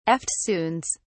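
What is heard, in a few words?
"eftsoons", British English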